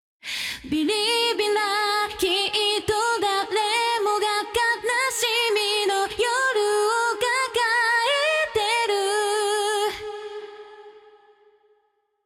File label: vocal stereo delay on